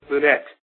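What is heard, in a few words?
"lunette", US English; pronunciations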